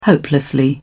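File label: "hopelessly", British English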